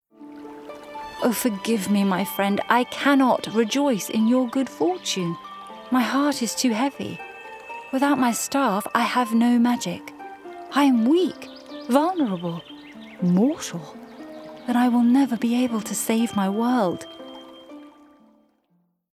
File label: Gaming Sorceress RP